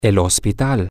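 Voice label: the hospital, Spanish, male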